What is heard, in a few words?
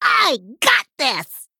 Male Gnome Play